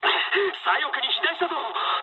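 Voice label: Japanese left wing mood med; War Thunder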